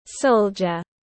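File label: "Soldier", English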